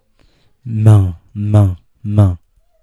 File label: old person